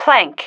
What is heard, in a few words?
"plank", female